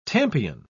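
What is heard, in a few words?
"tampion", British English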